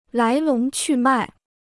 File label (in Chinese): "来龙去脉", Chinese